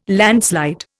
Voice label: "landslide", Indian

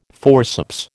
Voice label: "forceps", American

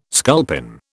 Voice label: "sculpin", British

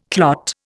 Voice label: "clot", Indian

